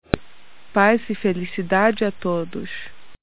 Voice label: portuguese